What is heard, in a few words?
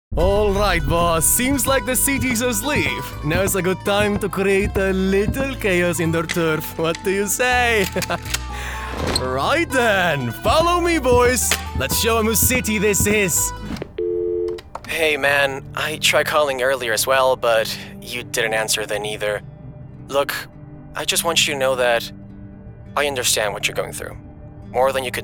English Character